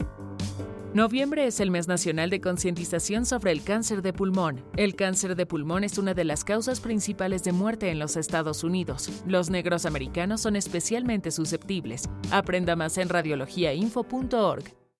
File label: female; lung